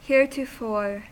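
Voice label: "heretofore", US English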